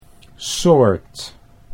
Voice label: "soort", Dutch

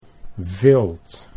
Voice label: "vilt", Dutch

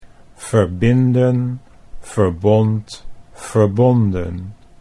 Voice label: "verbinden verbond verbonden", Dutch

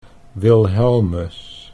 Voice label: "Wilhelmus" Dutch

